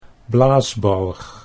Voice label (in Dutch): "blaasbalg", Dutch